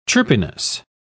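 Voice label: "chirpiness", English, British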